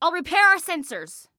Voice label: Self Repair Sensors, Female